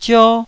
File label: "zio1", taiwanese